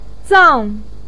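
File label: "zang4" Chinese syllable, pinyin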